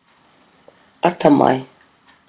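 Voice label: "atamai", Maori; kea intelligence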